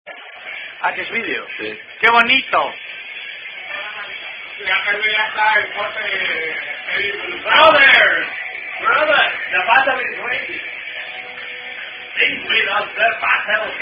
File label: Keyword Drinking Game Spanish People